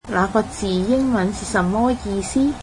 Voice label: cantonese What does that word mean in English